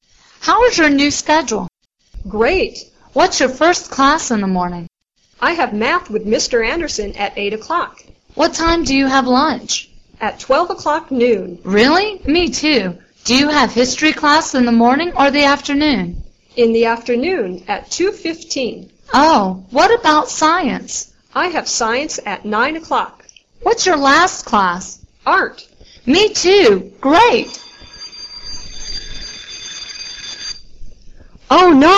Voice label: dialog